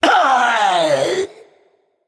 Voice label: Male Naga Death

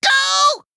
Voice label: Male Gnome Death

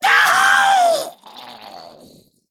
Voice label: Male Imp Death

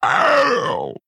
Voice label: Female Quilboar Hero Power

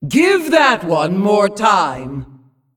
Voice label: Female Demon Error Summon Sickness

